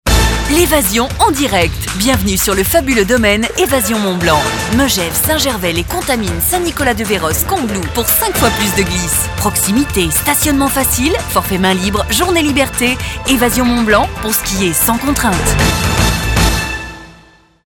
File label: radiopub spot